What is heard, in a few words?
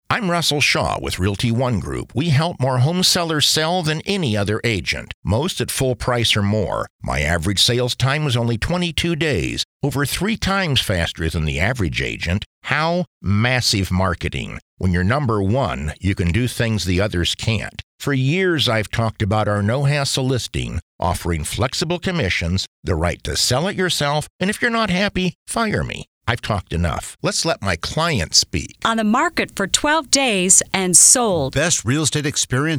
radio spot